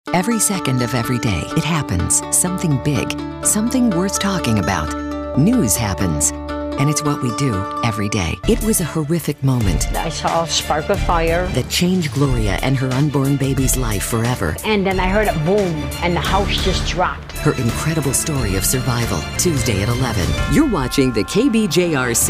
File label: american female continuity